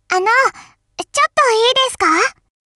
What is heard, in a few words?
help, voice commands Anime Loli; svencoop